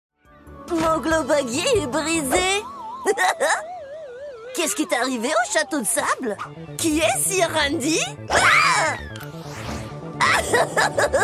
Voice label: Character Animation, en-AU

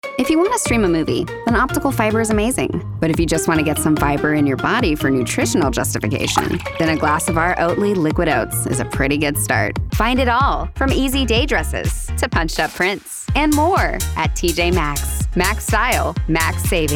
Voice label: COMMERCIAL

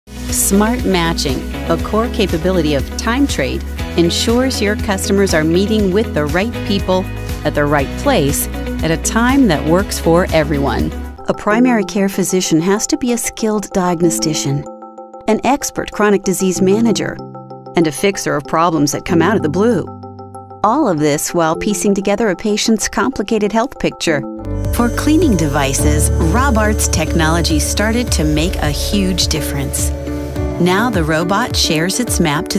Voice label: Narration Explainers, en-AU

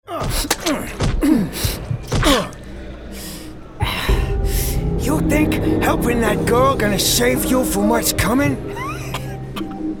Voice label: Crime Thriller US New York (Tough Crooked); new york | character

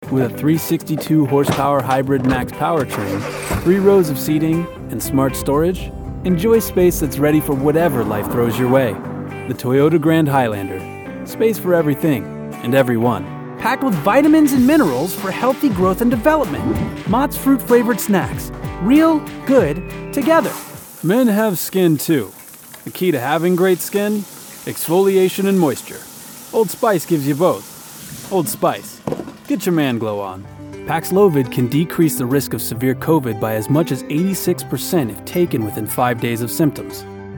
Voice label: Commercial; Atlanta, United States

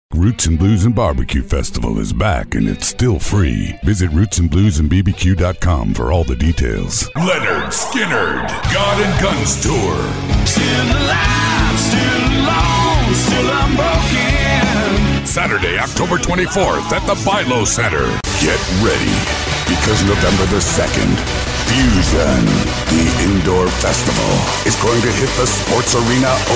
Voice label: DEEP Male Voiceovers - Events; en-NZ

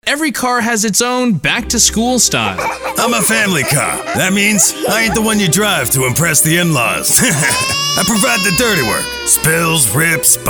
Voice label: Character

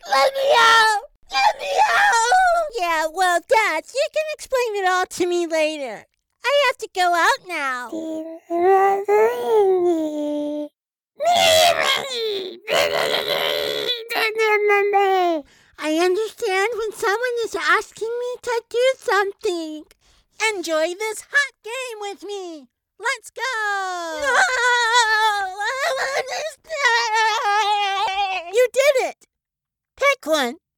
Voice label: Little Kids

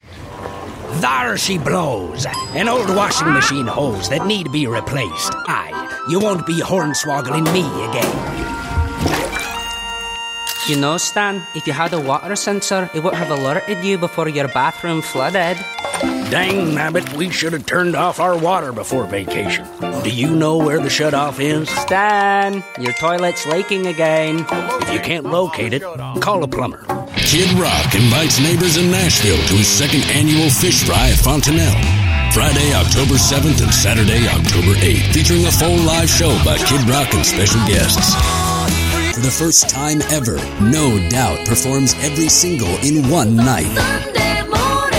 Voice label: Voiceover COMMERCIAL and PROMO

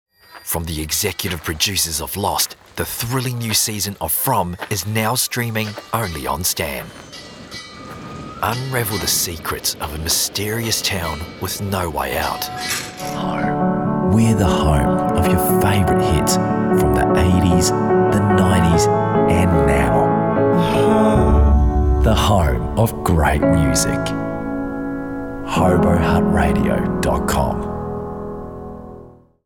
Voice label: Promo, en-AU; Bigmouth

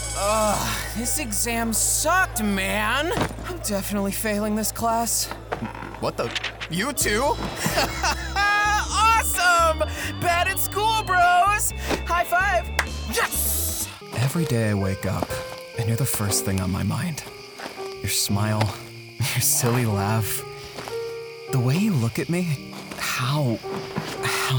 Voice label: Voice Acting Character; Voice Acting Character Reel

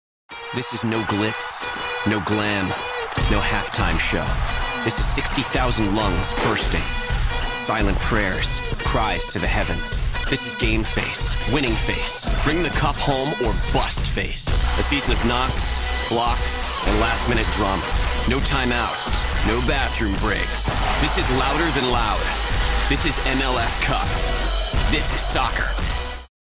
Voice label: driving edgy; mls cup

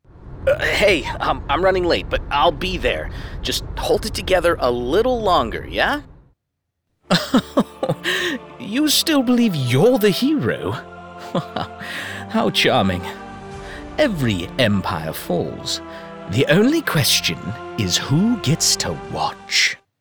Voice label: Character

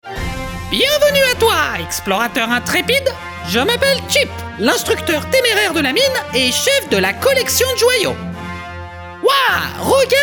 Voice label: character cartoon (FR)

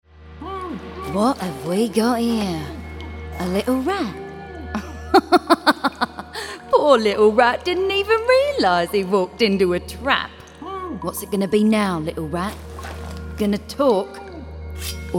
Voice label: British Character

